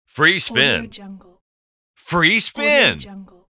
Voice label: male voice free spin